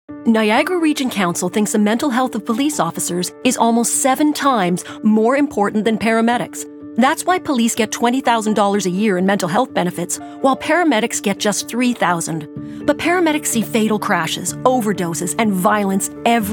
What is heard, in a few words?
Wake up Call VO